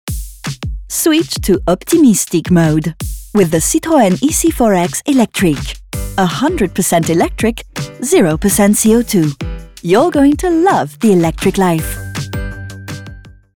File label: French Eng; Accent français; enjoué; Citroën